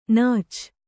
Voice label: "ನಾಚ್", Kannada

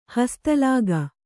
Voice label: "ಹಸ್ತಲಾಗ", Kannada

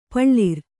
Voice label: "ಪುಲ್ಲೋರಿ", Kannada

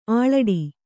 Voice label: "ಆಳಿಡು", Kannada

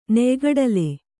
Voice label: "ಗಡ", Kannada

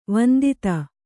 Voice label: "ವಂದಿತ", Kannada

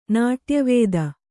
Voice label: "ನಾಟ್ಯವಿದೆ", Kannada

